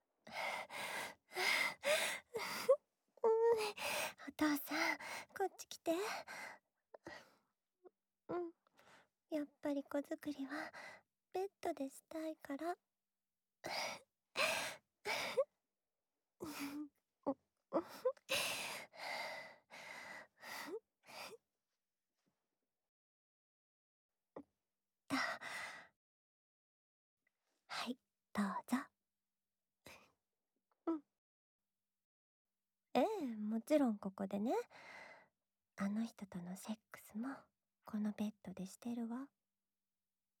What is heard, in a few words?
LOVE Whisper